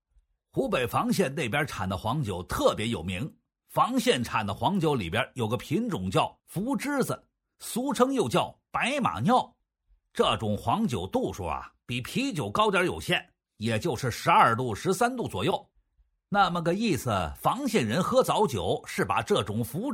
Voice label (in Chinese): 男 抖音信息流; 黄酒; 素人自然